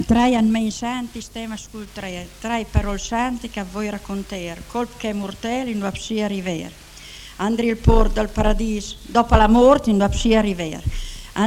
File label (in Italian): trai anmein santi (orazione)